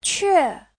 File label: "que4", Chinese